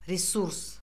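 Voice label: "resurs", Azerbaijani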